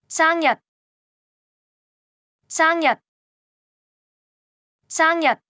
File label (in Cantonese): 生日, Cantonese; Traditional Chinese